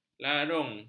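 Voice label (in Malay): "larung", Malay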